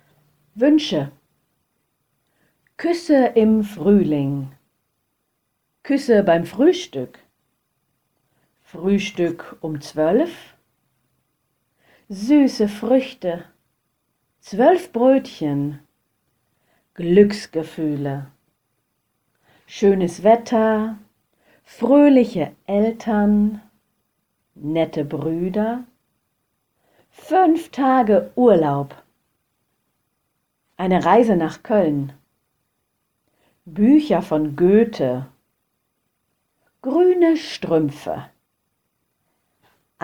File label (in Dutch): Uitspraak ü ö gedicht, Duits; Zakelijk Duits